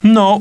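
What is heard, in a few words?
"no", male; TALKNOW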